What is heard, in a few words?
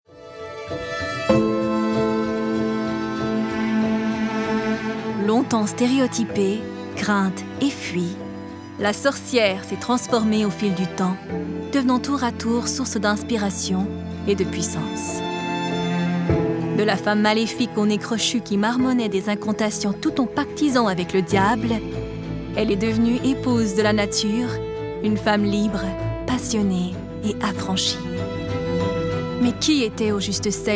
Narration